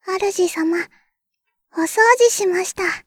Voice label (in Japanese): リサイクル, システムボイス; ベル・カールステッド